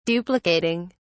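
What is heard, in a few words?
"duplicating", British English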